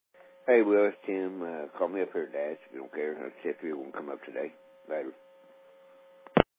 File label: Voicemail